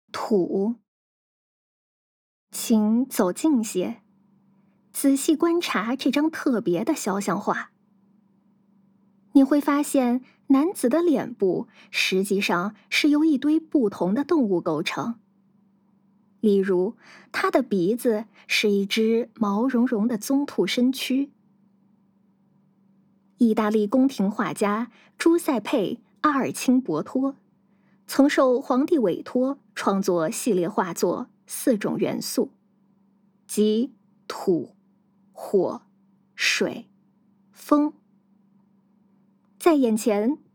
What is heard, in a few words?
Putonghua